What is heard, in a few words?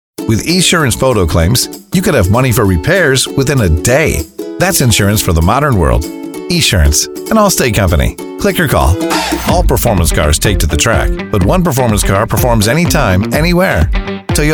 Commercial, male; male voice actor; voiceover